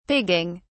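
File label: "pigging", British English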